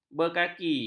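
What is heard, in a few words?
"berkaki", Malay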